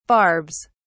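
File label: "barbs", British English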